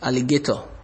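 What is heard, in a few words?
"alligator", Nigerian Pidgin, male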